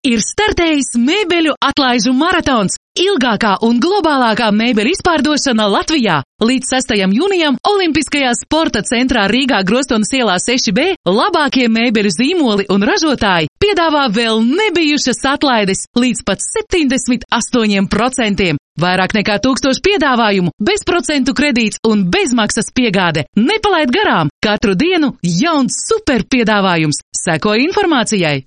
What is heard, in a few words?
Latvian F Narration